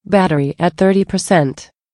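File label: Battery, female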